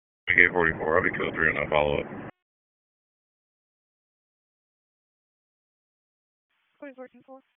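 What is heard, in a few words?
Sheriff's Office Dispatch; WSO DISP 1; wake; p25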